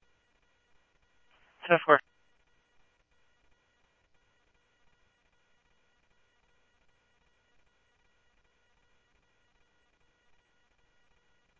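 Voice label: Mesa police department, scanner audio trunk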